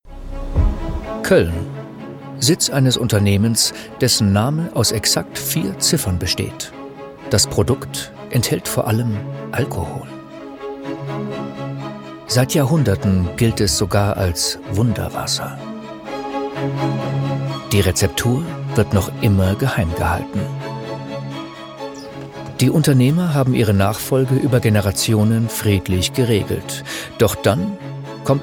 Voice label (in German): Kommentar, maenner; Die 4711 Story